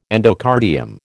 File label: "endocardium", American